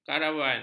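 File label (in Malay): "karavan", Malay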